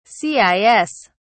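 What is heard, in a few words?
"cis", British English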